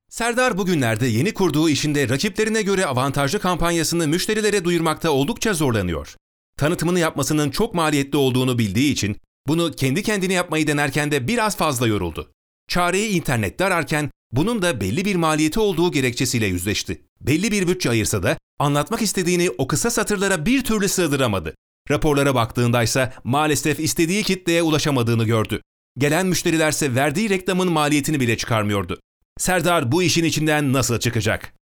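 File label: Turkish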